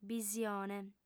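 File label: "bisione", female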